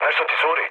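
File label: Serbian tank damaged mood low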